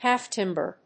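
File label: "half timber", English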